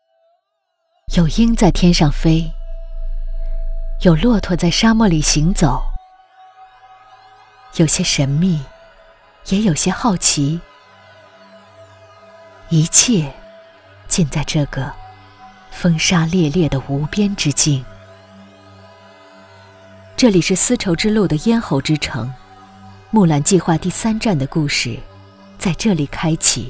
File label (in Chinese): 纪录片; 敦煌大漠